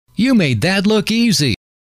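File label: "you made that look easy", male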